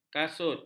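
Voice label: "kasut", Malay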